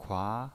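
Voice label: "kua", second tone, pinyin voice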